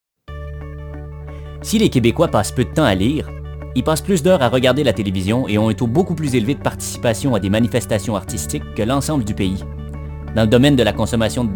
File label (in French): Narr